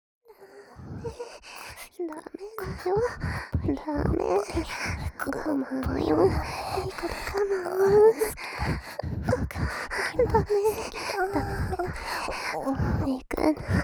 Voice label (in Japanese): 「イク♪」「ダメ♪」射精直前両耳たたみかけ囁き